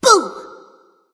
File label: spooky; cupid